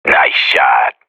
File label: radio bot nice shot, professional; player vo